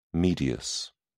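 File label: "medius", English, British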